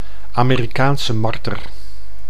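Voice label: "Amerikaanse marter", Dutch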